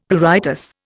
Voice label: "pruritus", Indian; Dictionary